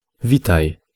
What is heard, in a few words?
pl hello